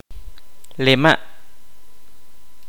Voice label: "cuento", Kaqchikel

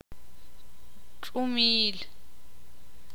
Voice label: "estrella", ixil

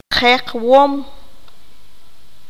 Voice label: "Sobadora", qanjobal

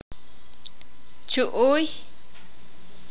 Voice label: "vientre", sakapulteko

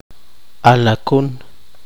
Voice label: matasano gusano, poqomchi

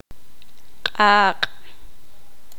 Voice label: poqomam fuego

